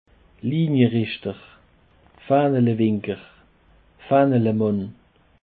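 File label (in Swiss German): "faehnelewinker", Alsatian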